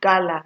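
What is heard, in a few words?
"gala", Nigerian Pidgin, female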